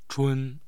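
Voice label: "chun", Chinese, first tone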